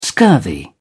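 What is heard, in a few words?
"scurvy", English, British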